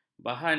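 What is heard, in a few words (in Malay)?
"bahana", Malay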